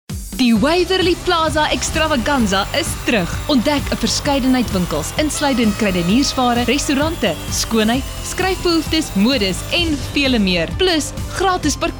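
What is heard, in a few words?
RADIO ADVERTISEMENTS; WAVERLEY PLAZA; VOICE-OVER WORK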